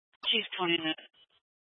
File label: Fire Dispatch, scanner